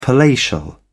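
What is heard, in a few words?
"palatial", British English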